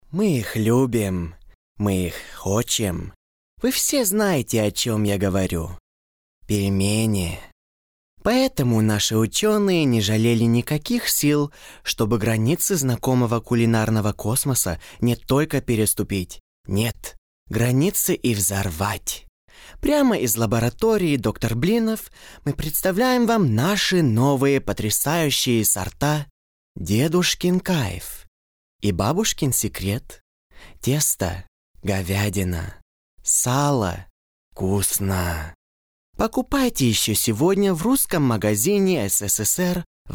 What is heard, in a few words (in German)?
Russisch Werbung Pelmeni